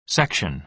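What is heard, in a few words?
"section", American English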